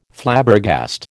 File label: "Flabbergast", American